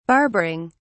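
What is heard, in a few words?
"barbering", British English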